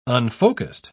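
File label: "unfocussed", English, uk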